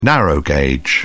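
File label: "narrow gauge", British English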